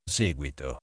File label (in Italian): "seguito", Italian